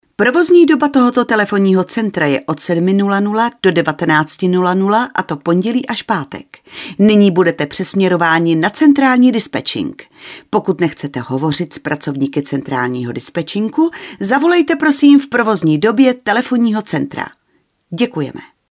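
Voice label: Female Czech IVR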